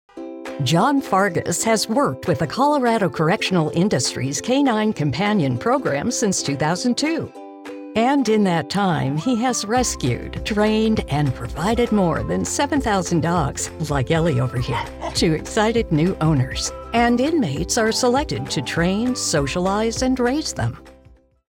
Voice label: Public Interest Documentary